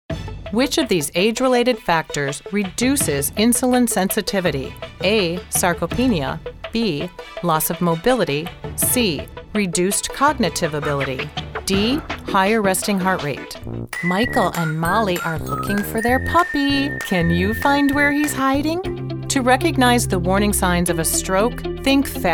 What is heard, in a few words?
e Learning